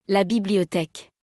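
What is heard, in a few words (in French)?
"la Bibliotheque", French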